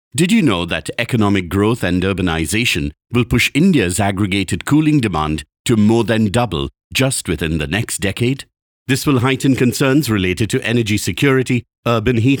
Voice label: Cooling VO